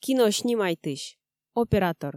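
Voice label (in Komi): "кино снимайтысь оператор", Komi, female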